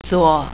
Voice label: "left", Mandarin